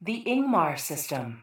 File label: PA System